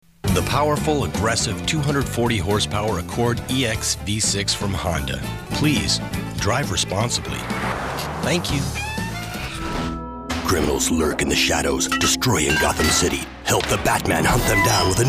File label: commercial